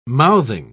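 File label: "mouthing", British English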